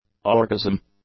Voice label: "orgasm", English; nouns